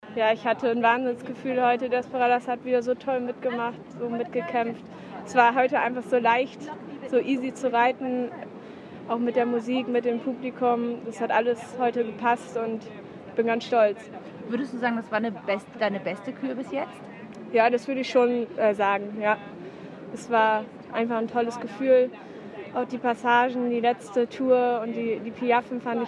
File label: ger; FEI Aachen Sprehe free